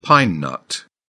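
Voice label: "pine nut", British English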